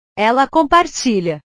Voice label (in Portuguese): "ela compartilha", portugues; compartilhar; portugues/verbos